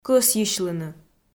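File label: "кӧсйысьлыны", Komi, female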